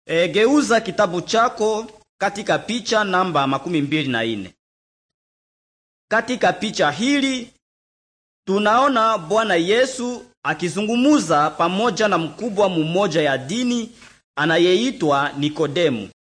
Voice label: Good News Swahili Congo